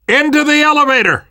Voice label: world hospital, namvet, survivor voice